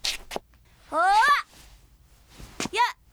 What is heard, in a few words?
FEMALE Emotion